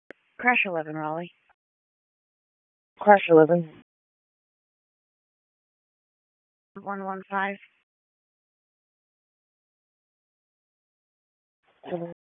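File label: Police District Dispatch; Raleigh Police Northeast District Disp; wake; p25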